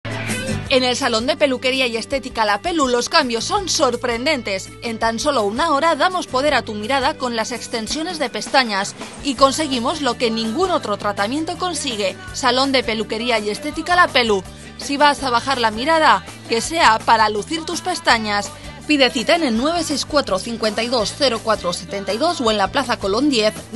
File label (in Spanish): anuncio; lapelu